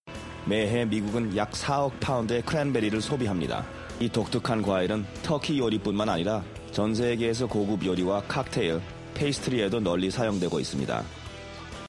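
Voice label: Korean M Corporate, male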